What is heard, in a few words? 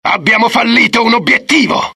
axis italian failure; dialogue; mohaa